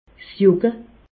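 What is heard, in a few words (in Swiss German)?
"jucke", Alsatian; medecine; prurit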